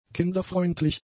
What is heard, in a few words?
"kinderfreundlich", German; adjectives